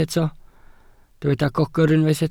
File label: "gogr", Welsh